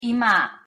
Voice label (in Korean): "이마" Korean; pronunciation